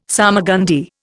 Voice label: "salmagundi", Indian

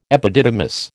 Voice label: "epididymis", American

